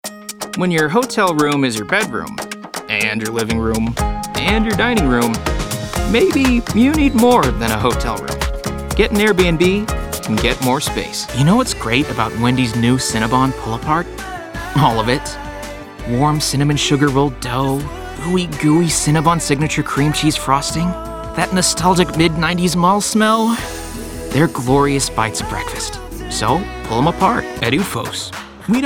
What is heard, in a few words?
COMMERCIAL